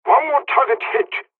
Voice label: japanese first wave, dialogs serbian; War Thunder